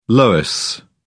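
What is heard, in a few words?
"loess", British English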